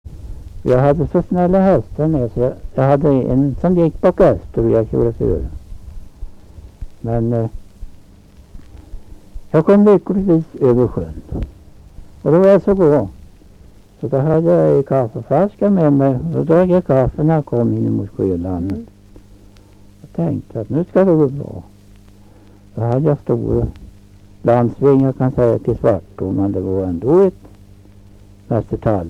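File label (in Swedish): Skagershult Närke, dialekter; Folkminnen; Svenska sagor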